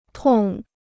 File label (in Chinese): "痛", Chinese